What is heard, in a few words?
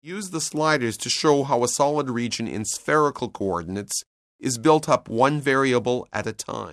narration; CALCULUS 7E; cengage